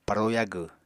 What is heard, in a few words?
"paro yagw", Apatani; Household Items